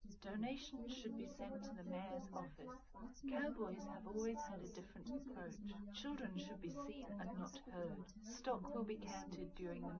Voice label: test female liverec 250ms 5cm sim; SiSEC08; SiSEC underdetermined